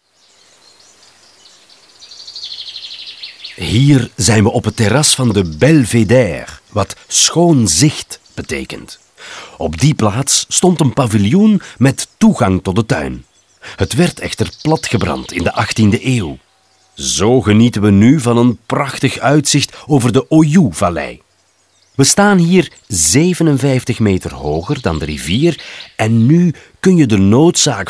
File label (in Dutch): audioguide nl enfant; modave